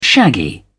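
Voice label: "shaggy", British English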